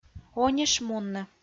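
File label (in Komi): "онишмунны", Komi, female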